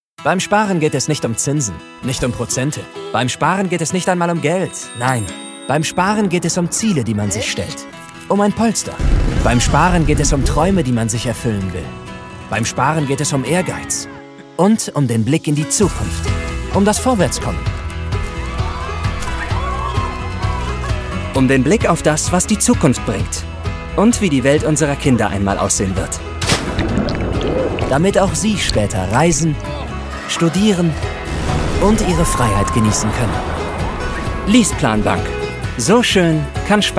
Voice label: werbung; leaseplan

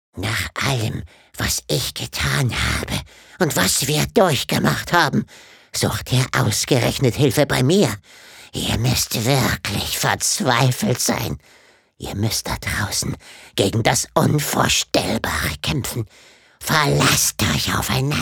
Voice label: Mystery Stimme

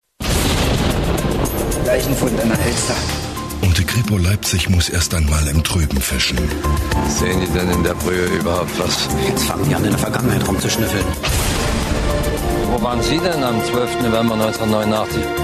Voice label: Trailer; hr; Tatort

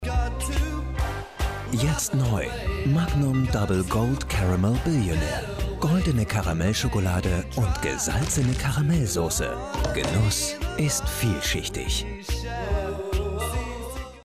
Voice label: Werbung; Magnum Double Gold Caramel Billionaire

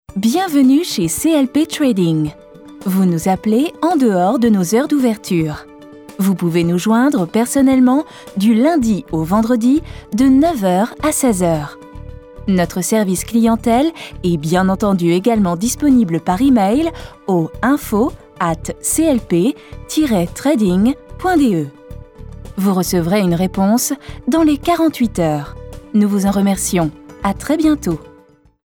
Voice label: Telefonansage; SLP trading

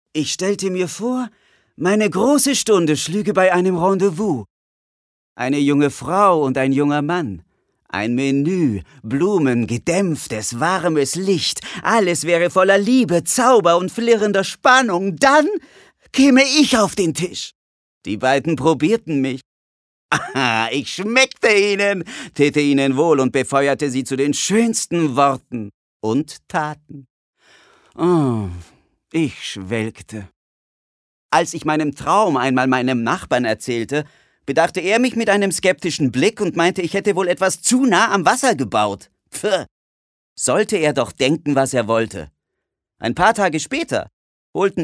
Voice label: Hoerbuch

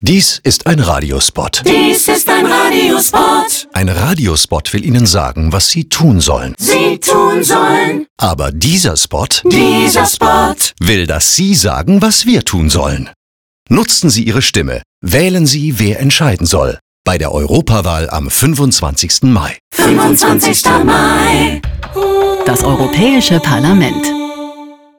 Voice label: Radiospot; Europa Parlament

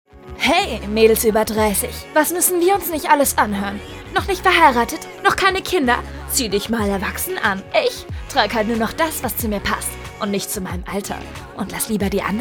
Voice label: Spot frech

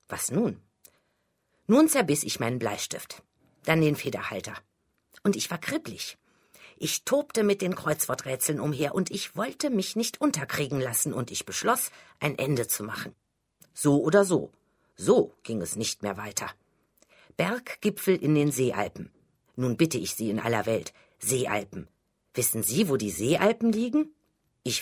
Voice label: Hoerbuch

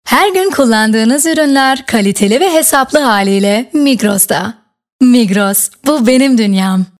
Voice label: tuerkisch, Sprecher; Migros

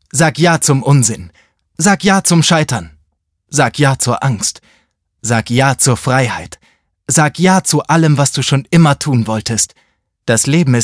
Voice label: Werbung OFF; Sag Ja

